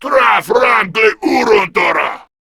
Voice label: Attack, Male; Warframe; Grineer Male B; Battle Barks